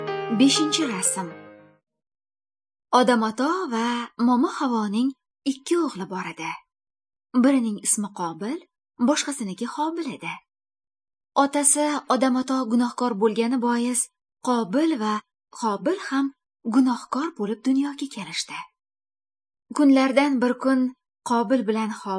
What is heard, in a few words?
good news female uzbek northern